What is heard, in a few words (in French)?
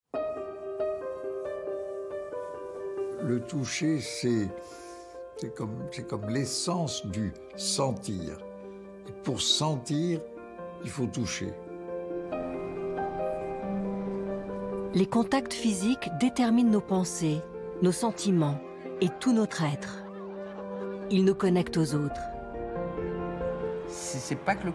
documentaire; ARTE